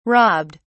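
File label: "robbed", British English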